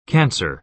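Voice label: "cancer", American English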